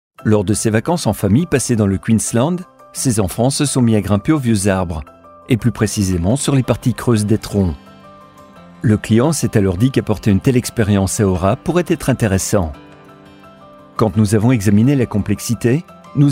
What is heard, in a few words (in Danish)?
Fransk, speakere